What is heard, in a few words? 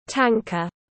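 "tanker", English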